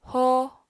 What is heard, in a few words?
"hóo", Apatani